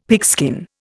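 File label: "pigskin", Indian English; Dictionary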